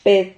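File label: "ped", Spanish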